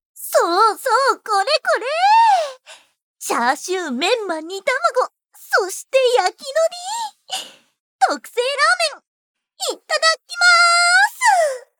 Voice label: Voice Sample, jp